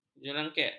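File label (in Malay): "jerangkak", Malay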